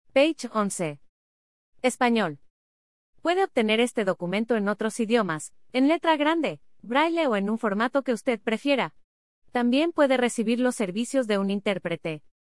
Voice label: spanish